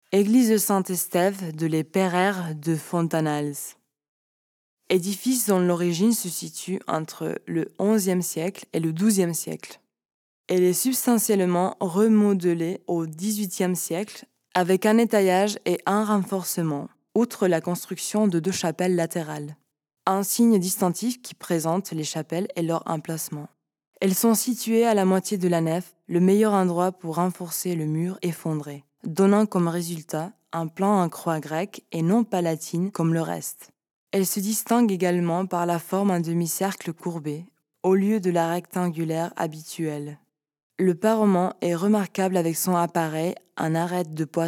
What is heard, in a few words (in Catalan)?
locucio frances; sant esteve les pereres